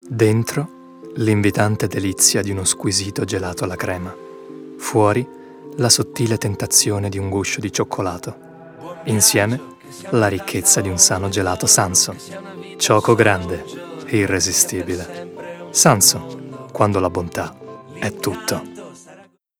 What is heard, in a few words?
male italian commercial; cornetto